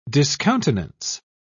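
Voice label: "discountenance", English, British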